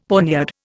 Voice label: "poniard", Indian; Dictionary